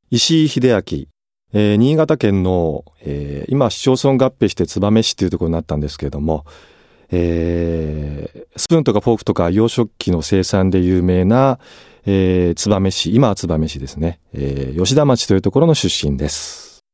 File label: freetalk, male voice